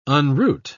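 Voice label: "unroot", English, British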